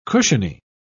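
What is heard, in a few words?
"cushiony", British English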